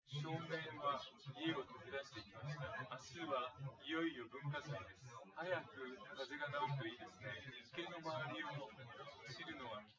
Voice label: male, synth conv, 250ms, 5cm; SiSEC08; SiSEC underdetermined

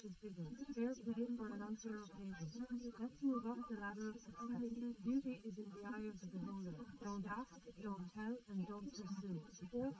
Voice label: female liverec; SiSEC11; underdetermined

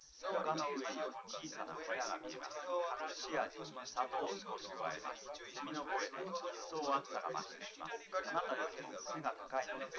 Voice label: male liverec 250ms 5cm

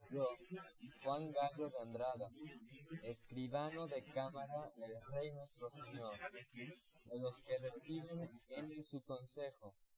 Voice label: male inst sim; SASSEC07

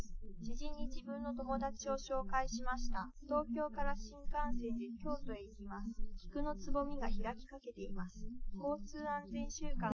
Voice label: female, live rec; SiSEC08; SiSEC underdetermined

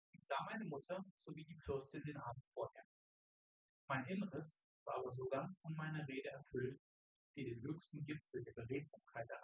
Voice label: male4 synthconv; SiSEC08; SiSEC underdetermined

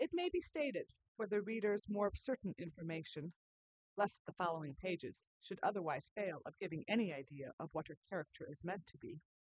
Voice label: female4 inst sim, underdetermined; SiSEC08

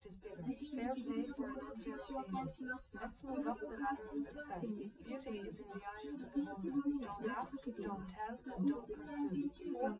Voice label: test female liverec 250ms 1m src

